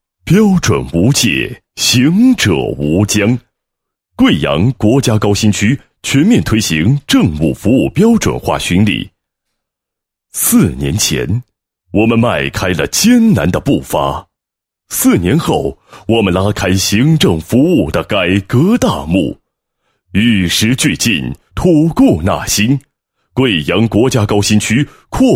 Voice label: 男 巡礼 国家高新区全面推行政务服务标准化巡礼, 配音案例

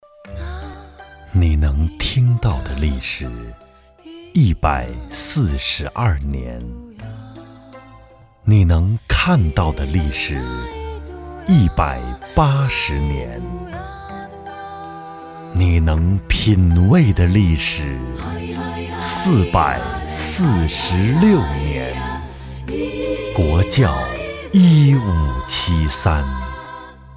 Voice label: 男 广告 磁性; 国窖

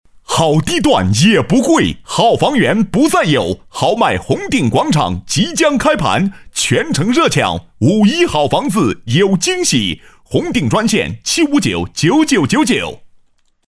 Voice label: 地产-叫卖式语气, 男; 新闻广告专题颁奖

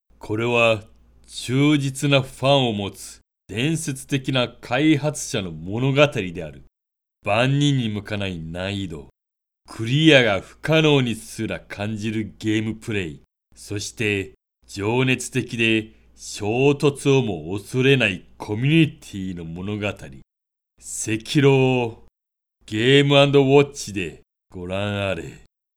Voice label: 日语男 游戏角色

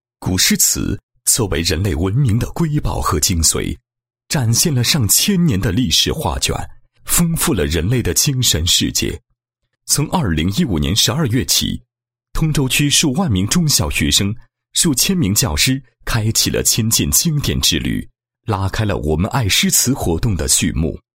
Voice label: 男 活动解说; 我们爱诗词

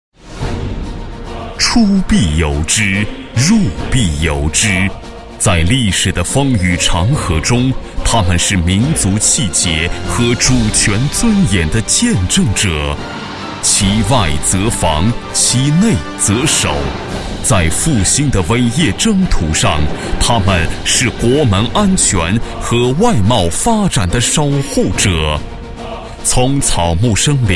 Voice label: 男 专题, 国语男声配音; 历史 复兴; 超级广告王

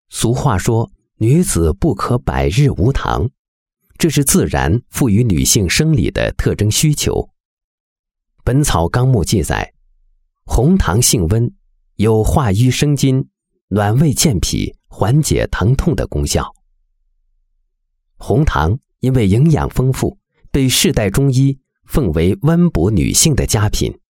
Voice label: 男 红糖

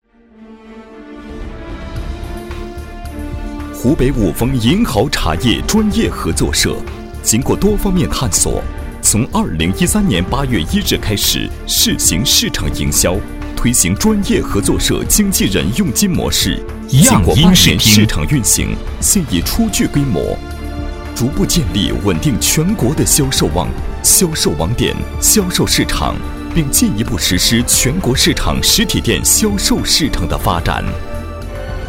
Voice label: 男, 国语男声配音; 五峰银毫专业合作社

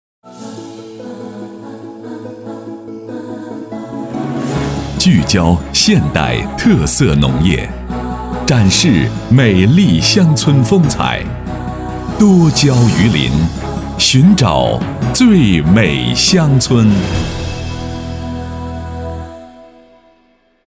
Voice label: 男 专题 节目宣传; 最美乡村

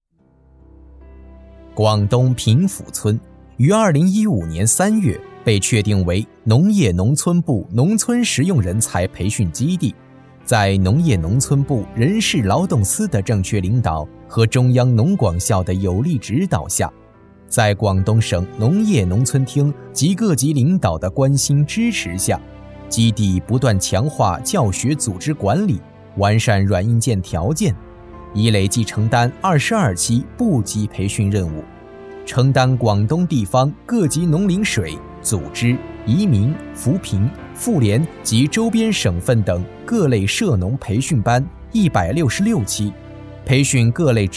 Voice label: 广东平甫村解说词

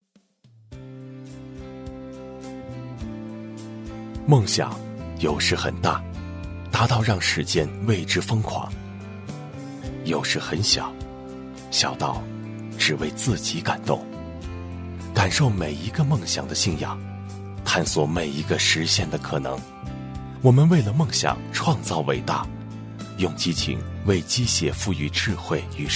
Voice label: 男 品质广告, 国语男声配音 年轻磁性; Honda 让梦想走的更远

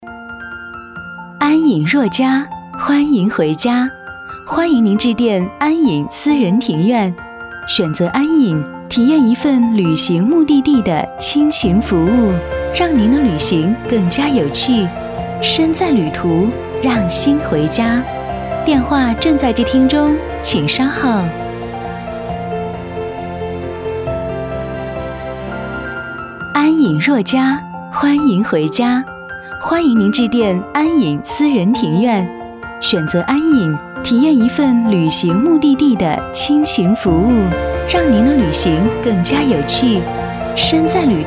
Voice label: 女 彩铃 温馨

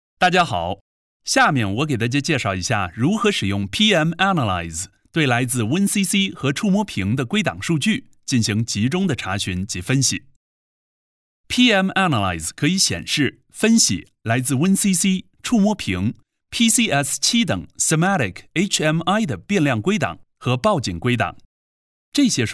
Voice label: 英男, 课件, 正式语气; 西门子公司课件

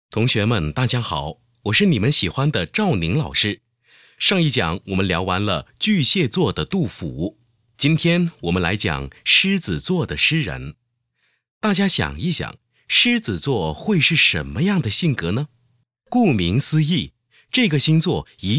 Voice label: 课件朗诵; 望庐山瀑布

